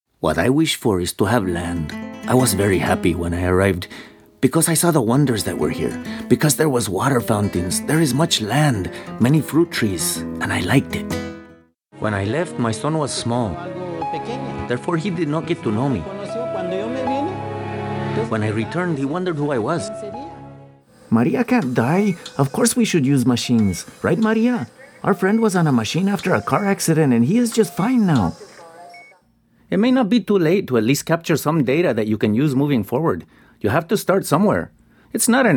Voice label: With Spanish Accent English